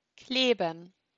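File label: "kleben", German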